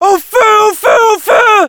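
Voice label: Scout auto on fire, French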